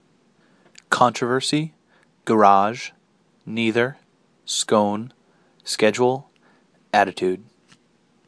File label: worcester ma usa male